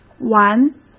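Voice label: "完", Mandarin Chinese; hsk; chinese-flashcards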